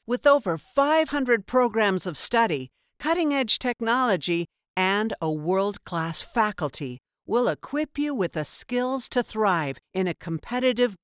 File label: Education Bold honest Upbeat High tone, voiceover